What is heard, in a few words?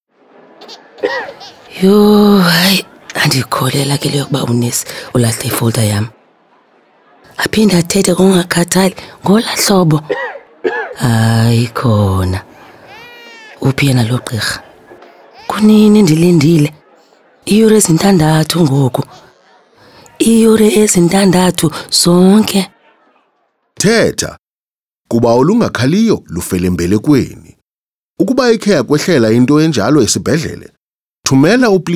complaints radio ad xhosa